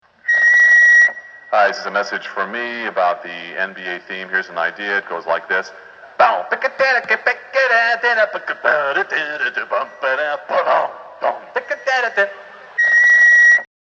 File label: phone message; NBA basketball